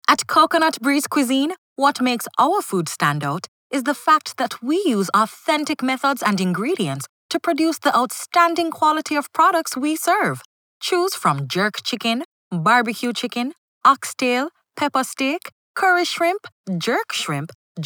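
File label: English Jamaican, IVR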